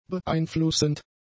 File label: "beeinflussend", German; adjectives